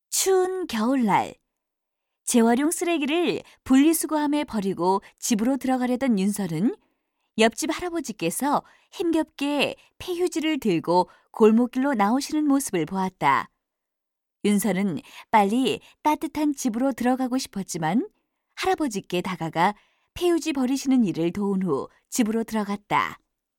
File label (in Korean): 내레이션; 도덕